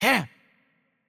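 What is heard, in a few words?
Turkish attack light voice; bloom minigame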